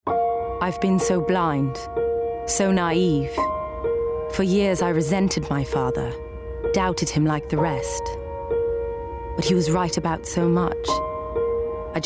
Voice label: Animation; Tomb Raider